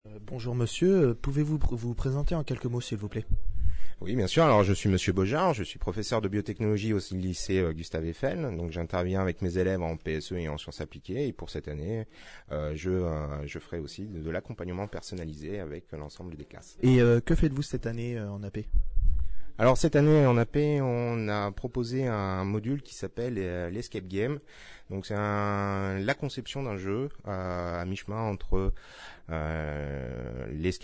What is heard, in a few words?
Interview